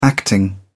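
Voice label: "acting", British English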